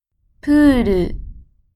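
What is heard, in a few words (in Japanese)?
"プール", Japanese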